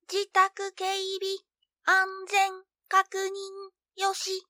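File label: robot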